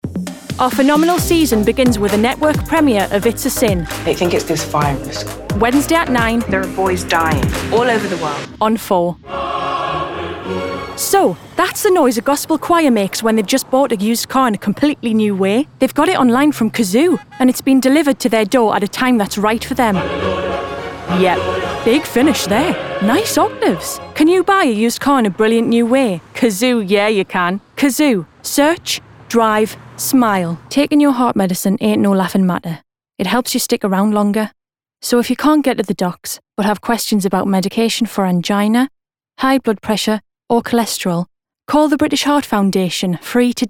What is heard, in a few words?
female english geordie accent commercial compilation; english northern accents